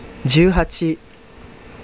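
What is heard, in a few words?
"zyuuhachi", Japanese; numbers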